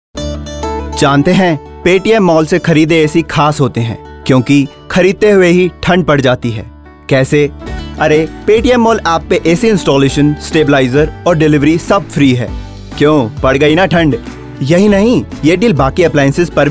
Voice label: Hindi, male voice; Paytm